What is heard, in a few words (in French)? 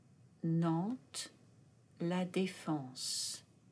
prononciation an nantes; fr; oral